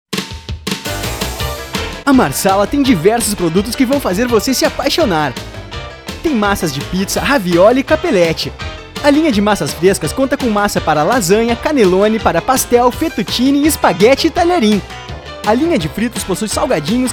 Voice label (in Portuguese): Spot